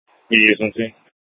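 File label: Sheriff, Parks, Sheriff radio scanner; Shrf Mnt (Parks)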